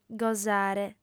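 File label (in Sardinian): "gosare", female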